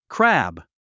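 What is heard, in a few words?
"crab", English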